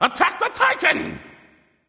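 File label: vox attack male; smite; loki; voicepack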